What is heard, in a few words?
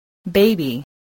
"baby", english